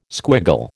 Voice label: "squiggle", American